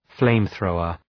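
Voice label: "flame-thrower", English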